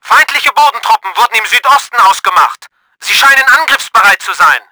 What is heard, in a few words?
TANKS, german; Battle Engine Aquila